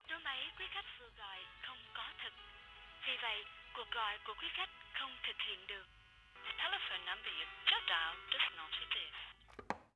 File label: Telephone, Announcement, Vietnamese, Hotel, Vietnam; starbites